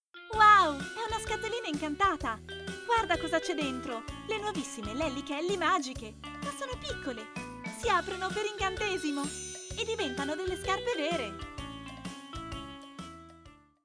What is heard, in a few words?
italian animation